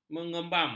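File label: "mengemam", Malay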